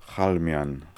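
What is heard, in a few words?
"Khalmian", pronunciations; Fourth Way; Beelzebub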